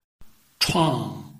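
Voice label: "chuang", Chinese, tone 4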